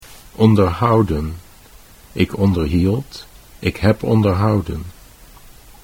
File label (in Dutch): onderhouden ik onderhield, Dutch